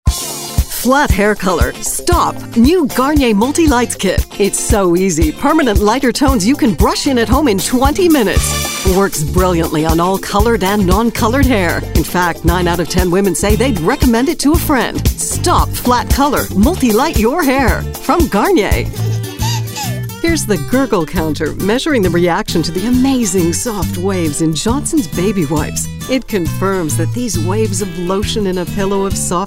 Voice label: Commercial VO Reel